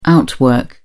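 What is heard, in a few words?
"outwork", English, British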